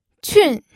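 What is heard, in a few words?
"qun", Mandarin Chinese, fourth tone; pinyin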